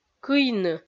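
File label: "кыйны" Komi, female; kpv audio dict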